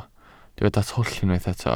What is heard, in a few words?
"twll", Welsh